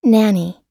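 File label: "nephew", us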